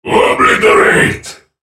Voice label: ultimate effort, en US; Viktor; Character Voices - English

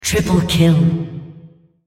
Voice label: female Triple Kill

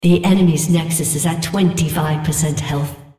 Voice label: female Nexus Enemy; Odin

